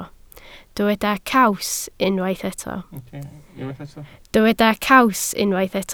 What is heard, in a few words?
"caws", Welsh